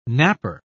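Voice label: "knapper", British English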